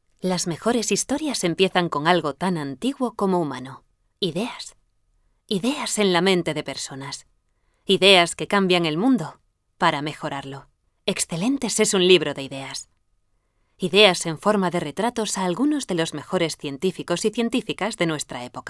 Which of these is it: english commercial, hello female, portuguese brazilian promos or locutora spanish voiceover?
locutora spanish voiceover